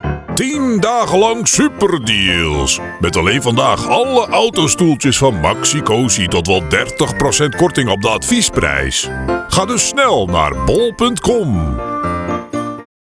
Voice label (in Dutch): Dikke mannen stem